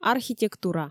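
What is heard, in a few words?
"архитектура" Komi, female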